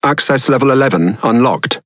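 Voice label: Speech Access Level, British English; World Of Warships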